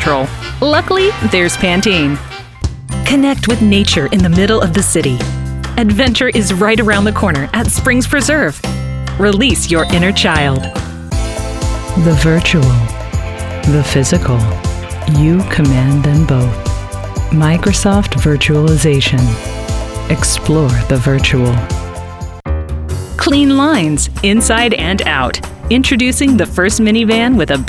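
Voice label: voice-over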